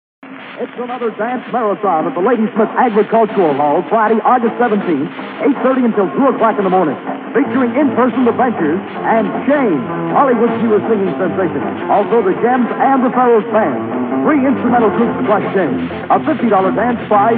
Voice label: Radio Commercial; Red Robinson Dance Marathon; Ladysmith; 1963